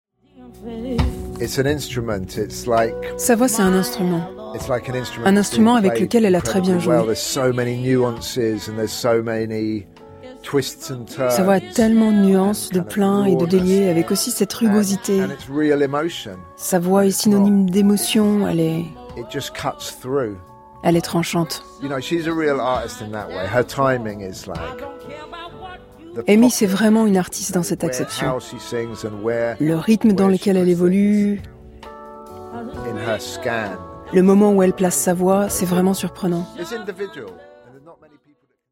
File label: female French dubbing